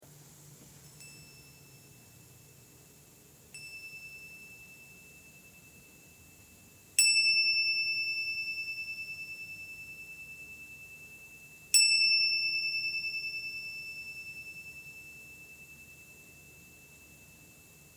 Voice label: Meditation; 20 Minute Meditation